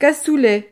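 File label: "cassoulet", French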